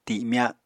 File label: "timya", apatani